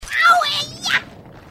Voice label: grunt; h2dialogue; grunt crazy

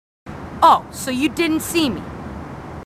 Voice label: marines female, marine tough

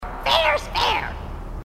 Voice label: grunt crazy; h2dialogue

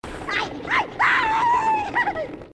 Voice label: iiiwoooooo, grunts

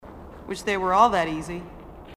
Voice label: marine female, sassy

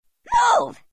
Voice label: move, grunts

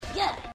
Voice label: good, grunts

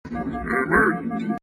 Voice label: taunt agressive response

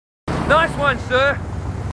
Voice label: marines, aussie; h2dialogue

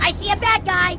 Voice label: grunt; h3dialogue; staten

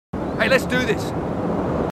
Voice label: marines, aussie; h2dialogue

